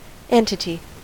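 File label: "entity", US English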